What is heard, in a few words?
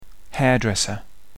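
"hairdresser", English; jobs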